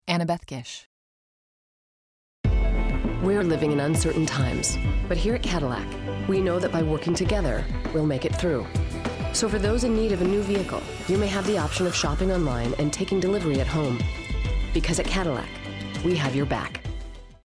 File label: commercial; Innovative LA